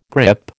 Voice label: "grip", American